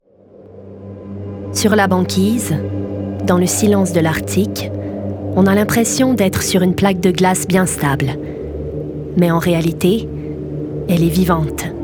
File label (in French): Extrait narration; La banquise